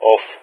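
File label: "OFF", Greek; Ka-50; Messages; Speech; DCS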